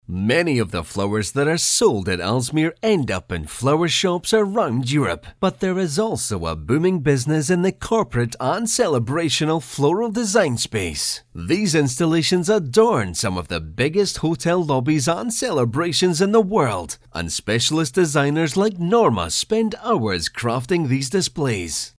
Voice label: English Scottish documentaries